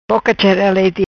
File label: "Boca Chera Lete", Macanese